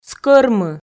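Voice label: "скӧрмы", Komi, female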